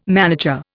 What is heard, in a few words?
"manager", Indian